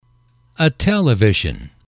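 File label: "a television", English, male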